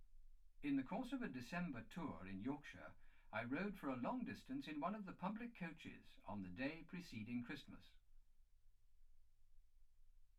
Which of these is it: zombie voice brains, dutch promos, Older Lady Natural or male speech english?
male speech english